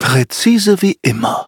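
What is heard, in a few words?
loading, German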